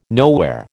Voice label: "nowhere", American

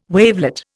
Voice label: "wavelet", Indian

